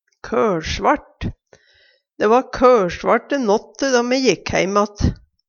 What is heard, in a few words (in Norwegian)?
køLsvart, Numedalsmål; Adjektiv